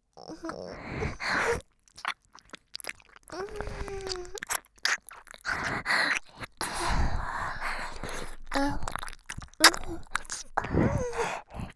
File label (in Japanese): エッチしよ, バイノーラル; DL製作班; ハーレムオナサポ 4人のメイドがご主人様のオナニーをお手伝いするバイノーラル